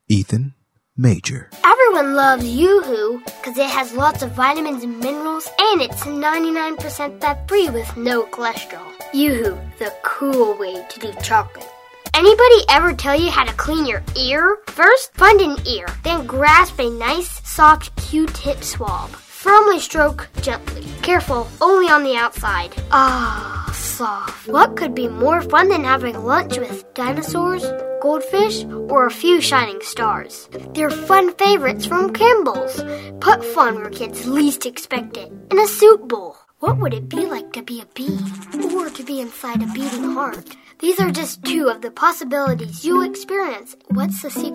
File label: Voice Over